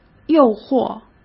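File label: "诱惑", Mandarin Chinese; chinese-flashcards; hsk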